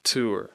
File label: "tour", English